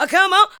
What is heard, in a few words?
ADLIBS 90; Ueberschall; Jam Box